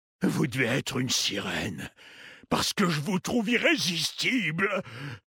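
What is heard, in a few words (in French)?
French Homme Flirt; Sirene; Kultirassien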